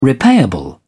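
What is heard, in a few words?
"repayable", British English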